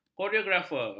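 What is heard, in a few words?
"koreografer", Malay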